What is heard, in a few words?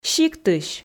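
"сиктысь", Komi, female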